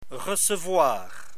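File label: "recevoir", French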